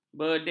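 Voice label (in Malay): "bedek", Malay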